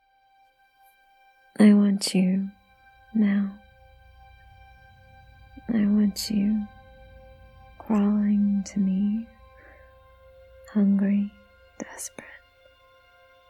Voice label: [F4A] [fantasizing][desire][teasing][switching][giggling]; softly spoken; soundscape; Give it to me. Give In to me